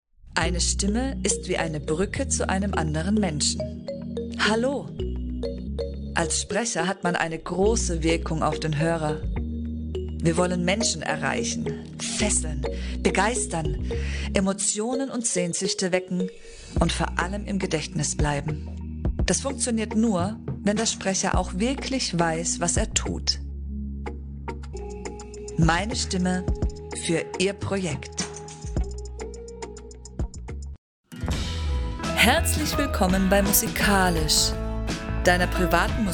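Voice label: German, female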